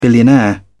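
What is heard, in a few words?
"billionaire", English, uk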